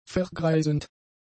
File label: "vergreisend", German; adjectives